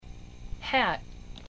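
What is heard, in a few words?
"hat", English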